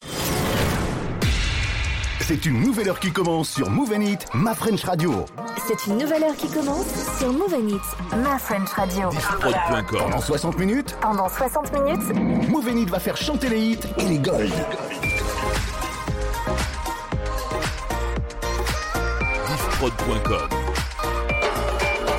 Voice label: Top H Version Courte, habillage; Moov N Hits 2023

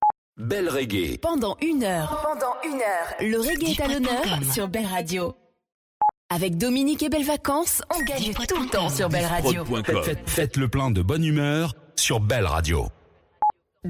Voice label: Drops A cappellas, habillage

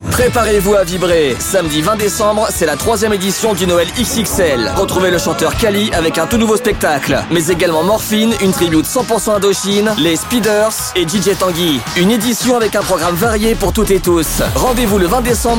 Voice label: VO Voix H

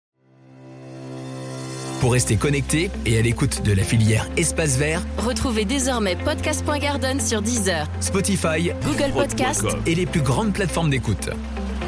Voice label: Jingle Applis Streaming; Podcast Platform Garden

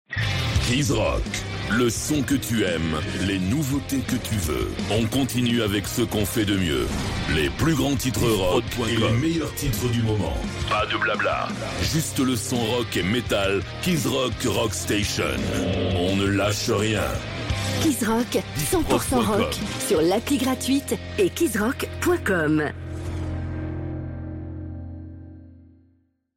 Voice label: Promo Duo; Kizrock

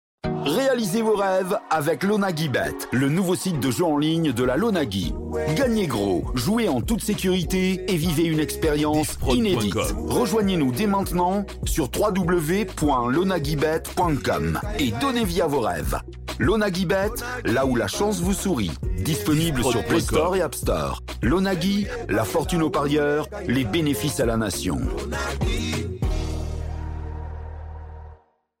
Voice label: Spot Nouveau Site, publicite; Lonaguibet

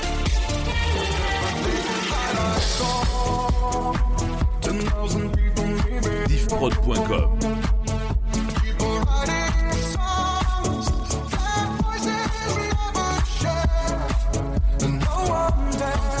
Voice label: Radio Medley Hits, habillage; Big Inside

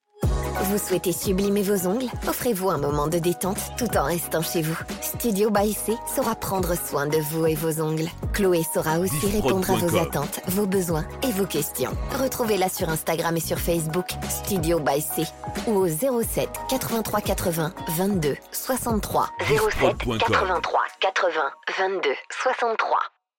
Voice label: Spot Onglerie; Studio By C

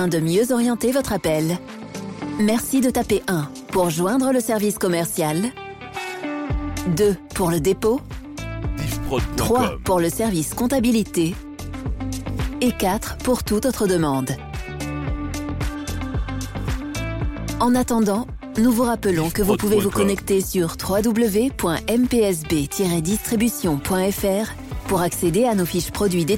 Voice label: Plomberie SVI Attente; MPSB Distribution

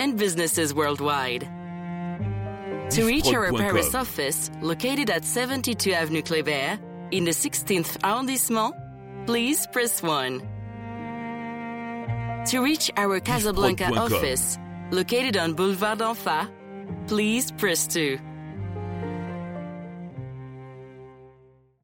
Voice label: Law Firm FR UK Accueil SVI; Hazguer

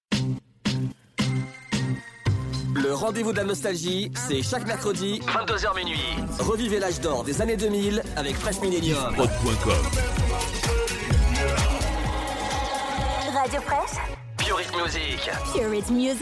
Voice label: Radio Promo 2000s; Fresh Millenium

